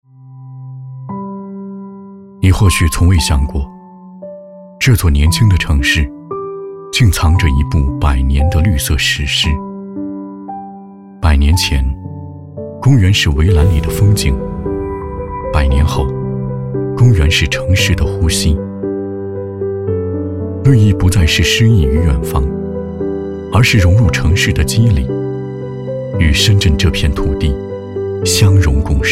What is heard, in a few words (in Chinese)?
男 自然述说; 深圳公园百年